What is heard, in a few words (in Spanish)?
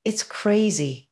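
Voice Acting es F, Spanish, female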